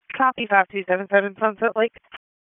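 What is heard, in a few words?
Dispatch 1, P25; wake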